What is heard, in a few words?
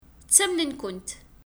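Kabyle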